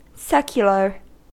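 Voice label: "secular", American English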